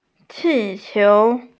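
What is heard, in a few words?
"qi4qiu2", Mandarin Chinese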